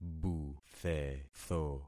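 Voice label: "buthetho", concatenated syllables; Affixing experiment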